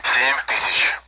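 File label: Speech Sound Russian Common AWACS Numbers; DCS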